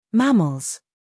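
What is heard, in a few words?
"humor", British; mammals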